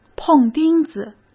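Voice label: "碰钉子", Mandarin Chinese; chinese-flashcards; hsk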